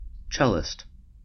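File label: "cellist", US English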